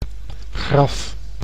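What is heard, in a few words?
"graf", Dutch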